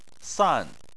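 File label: "san" tone 4, Chinese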